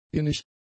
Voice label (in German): "innig", German; adjectives